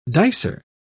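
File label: "dicer", British English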